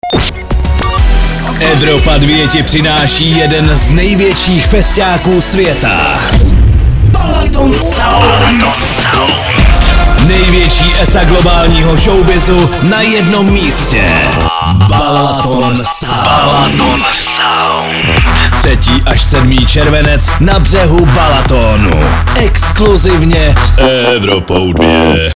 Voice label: promo; evropa2; balaton sound